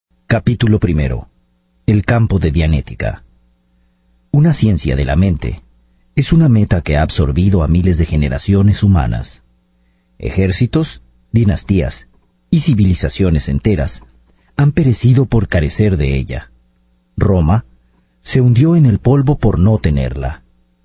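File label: Narration Latin Spanish Male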